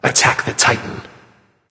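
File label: vox attack male; smite; agni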